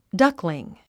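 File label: "duckling", English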